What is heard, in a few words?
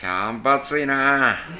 "yabatui", minamiyamagata